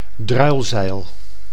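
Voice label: "druilzeil", Dutch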